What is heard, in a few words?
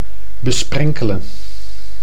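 "besprenkelen", Dutch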